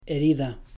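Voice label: "herida", Spanish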